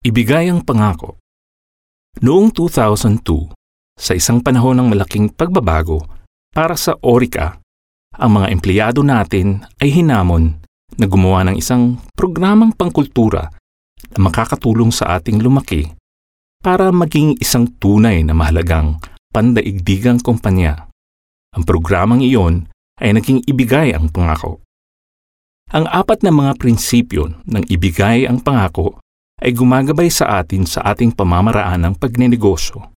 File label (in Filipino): Tagalog